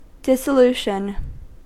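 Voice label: "dissolution", American English; pronunciations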